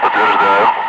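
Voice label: Russian speech, Ka-50 Wingman; affirm; DCS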